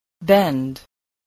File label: "bend", spelling